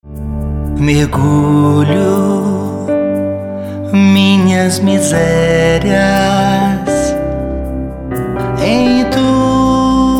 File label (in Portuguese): voz locutor; festa da misericordia